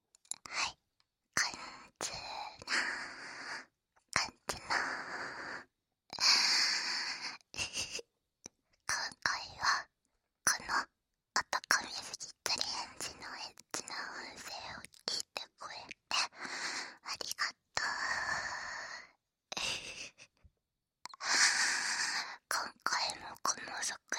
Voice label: フリートーク

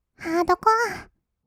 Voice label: ハードコア, kawaii voice; 1200 kawaii voice!!; 音楽ジャンル

